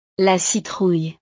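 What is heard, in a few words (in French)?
"la citrouille", French; verduras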